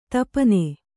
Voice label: "ದೀಪನ", Kannada

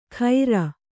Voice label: "ಖೈರ", Kannada

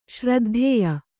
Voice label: "ಶ್ರದ್ಧೇಯ", Kannada

